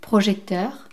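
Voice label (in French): "projecteur", French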